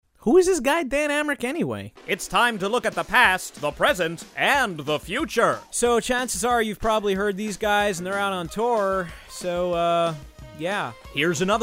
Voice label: Accents Archetypes